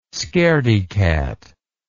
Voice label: "scaredy cat", English